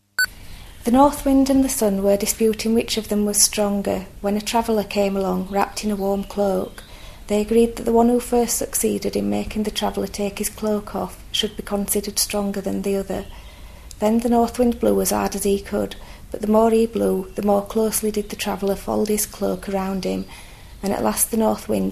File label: Barnsley accent; Barnsley (South Yorkshire), England; Accent Quiz